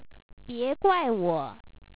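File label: "别怪我", Chinese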